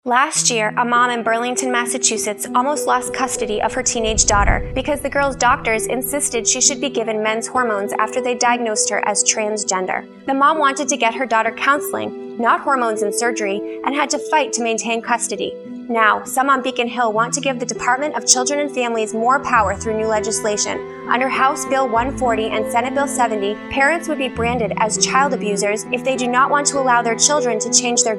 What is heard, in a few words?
Radio Ad; Therapy